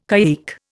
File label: "caique", Indian